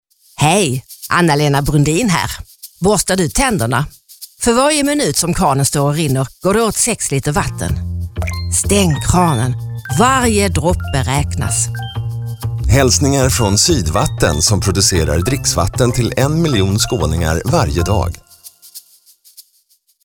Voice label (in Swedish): Reklam; Sydvatten Profil; tandborste